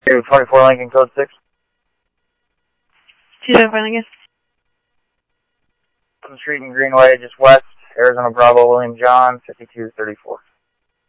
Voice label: Phoenix PD, scanner audio; PHOENIX PD-200 A; ThompsonPeakHigh